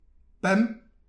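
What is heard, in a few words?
"pemp", Breton; petit precis de prononciation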